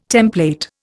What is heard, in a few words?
"template", Indian